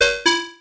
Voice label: comedy voice